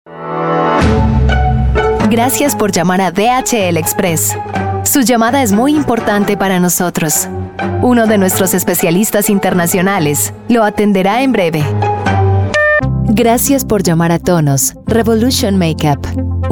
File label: spanish voice over female ivr